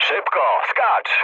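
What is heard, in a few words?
bailout, mood low, Polish speech; War Thunder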